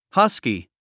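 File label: "husky", English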